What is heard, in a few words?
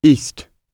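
"east", British English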